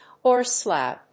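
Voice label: "horselaugh", English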